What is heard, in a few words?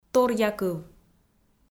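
"торъякыв", Komi, female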